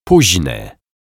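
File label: "pozny", Polish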